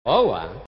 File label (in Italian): "òua", genovese; 'Owwa/ (adesso ?)